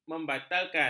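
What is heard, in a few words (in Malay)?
"membatalkan", Malay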